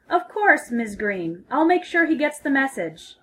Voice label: phone formal